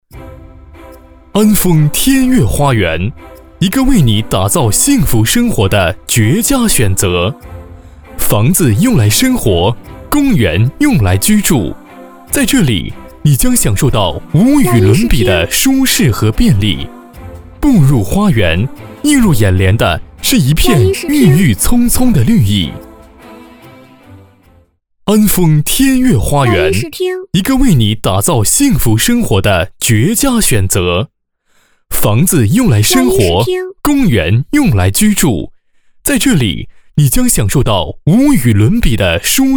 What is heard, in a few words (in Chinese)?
地产宣传 时尚磁性; 天悦花园